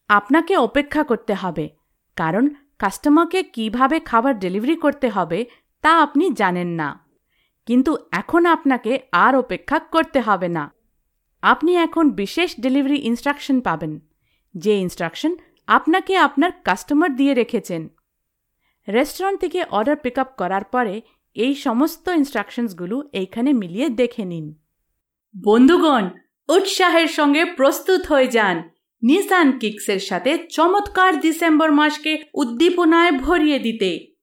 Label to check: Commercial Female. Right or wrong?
Wrong